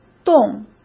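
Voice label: "栋" Mandarin Chinese; chinese flashcards; hsk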